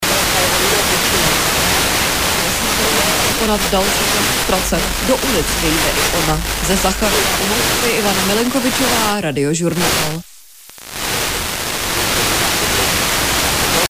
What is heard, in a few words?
czech